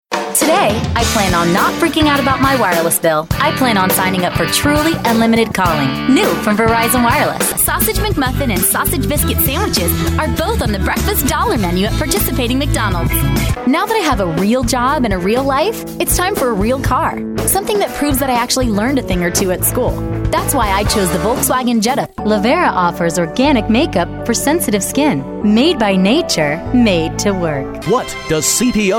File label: Voiceovers